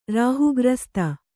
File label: "ರಾಹುಗ್ರಸ್ತ", Kannada